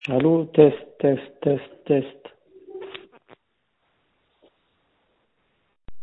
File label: voicemail